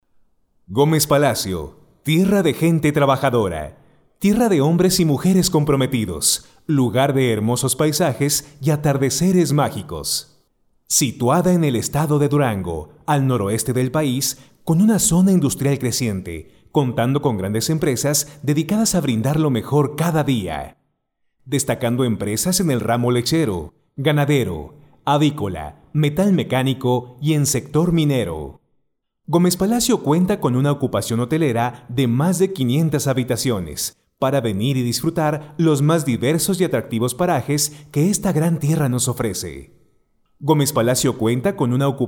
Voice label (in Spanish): LOC VIDEO INSTITUCIONAL Y CORPORATIVO